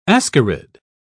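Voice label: "ascarid", British English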